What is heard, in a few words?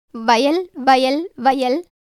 Tamil